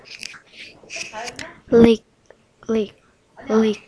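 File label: "lick", spoken word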